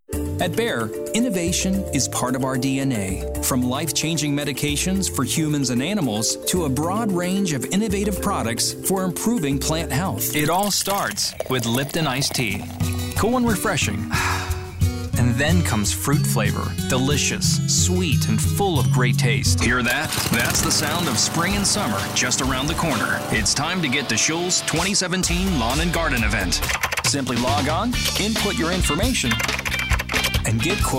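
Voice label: male, US English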